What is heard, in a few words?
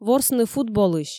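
"ворсны футболысь", Komi, female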